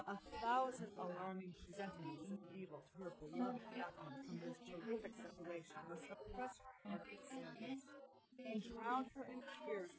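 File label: female instantaneous source; SiSEC10; underdetermined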